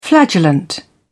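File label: "flagellant", British English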